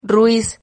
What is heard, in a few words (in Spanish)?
"rruis", Silabas